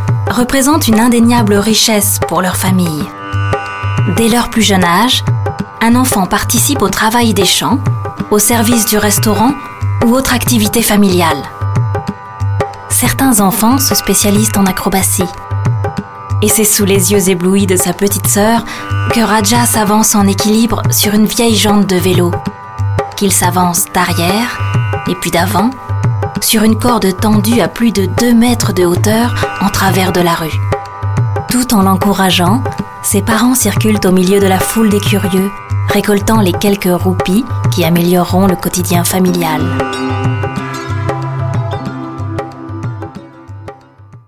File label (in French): Documentaire Calcutta